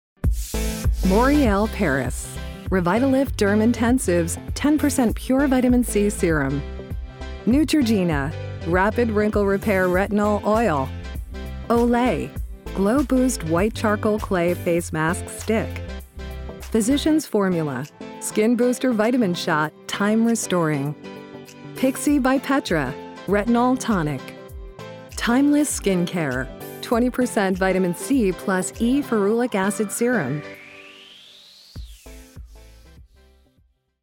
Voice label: Commercial Promo